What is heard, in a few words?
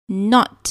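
"knot", English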